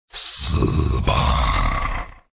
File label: Shadow Trance, En; wow-1.16.5; Necrosis